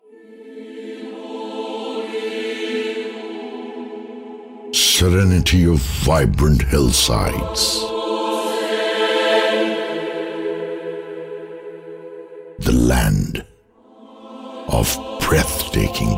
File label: docu eng